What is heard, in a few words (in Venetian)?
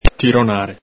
"tironare", Veneto; ENG: tug, jerk; ITA: strattonare; el Galepin